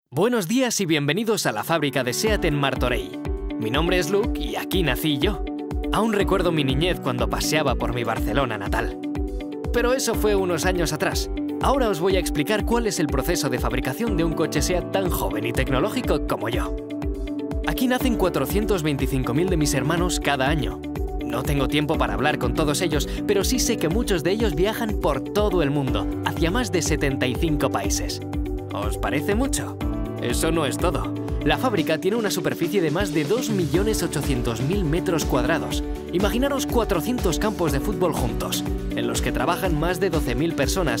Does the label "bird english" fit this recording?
No